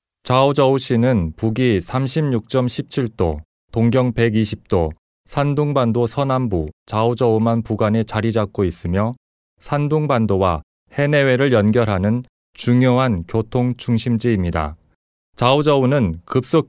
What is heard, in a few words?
MALE KOREAN